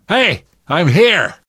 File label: scenario join, survivor voice